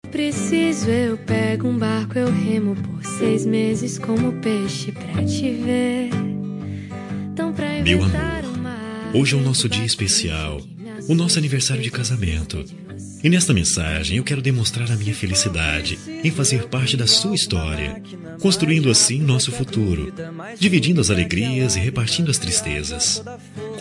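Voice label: male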